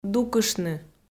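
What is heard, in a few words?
"дукыштны", Komi, female; kpv audio dict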